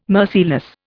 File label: "merciless", Indian